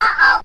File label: "uh oh", cartoon